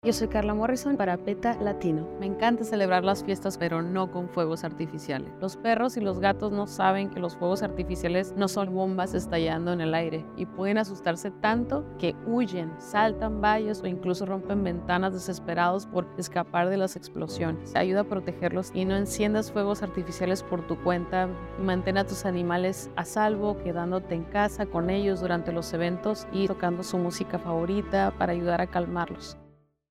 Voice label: radio spot spanish